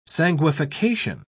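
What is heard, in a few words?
"sanguification", British English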